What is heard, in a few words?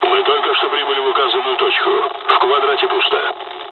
dialogs russian; War Thunder